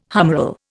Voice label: "humeral", Indian